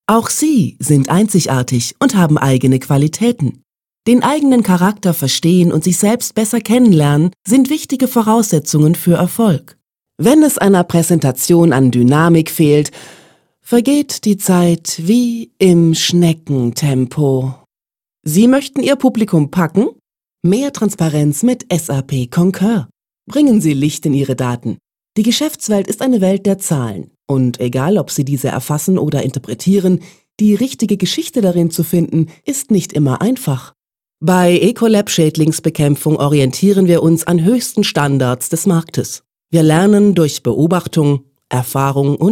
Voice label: VO mix corporate